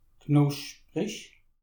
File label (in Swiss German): "knuschprich", alsace